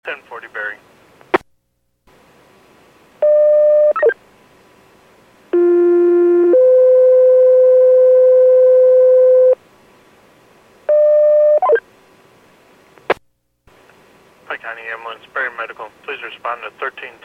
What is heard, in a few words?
PAGING